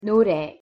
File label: "노래", Korean